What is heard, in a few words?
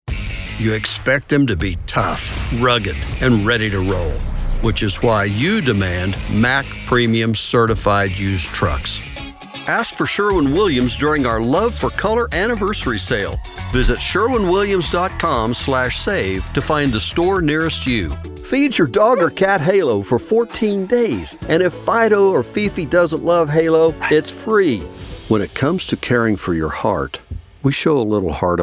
commercial, english male voices american accent